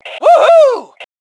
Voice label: Woohoo, Female; T2 Female 04